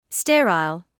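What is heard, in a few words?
"sterile" English, British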